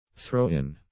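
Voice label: "throw in", English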